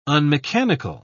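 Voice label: "unmechanical", British English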